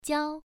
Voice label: "jiao1", pronunciation

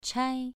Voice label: "chai2", pronunciation

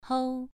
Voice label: "hou2", pronunciation

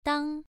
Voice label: "dang1", pronunciation

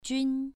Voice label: "jun2", pronunciation

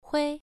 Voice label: "hui1", pronunciation

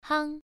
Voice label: "hang1", pronunciation

